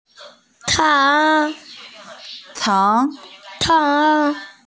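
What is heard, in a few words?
"ceng2", Mandarin Chinese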